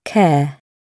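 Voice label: "care", British English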